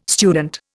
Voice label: "student", Indian

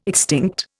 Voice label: "extinct", Indian

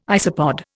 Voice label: "isopod", Indian

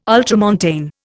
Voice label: "ultramontane", Indian

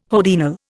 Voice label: "hodiernal", Indian

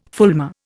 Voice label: "fulmar", Indian

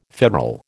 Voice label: "federal", American

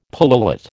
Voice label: "pullulate", American

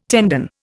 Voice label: "tendon", Indian

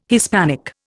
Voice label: "Hispanic", Indian

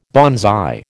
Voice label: "banzai", American

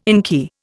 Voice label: "inky", Indian

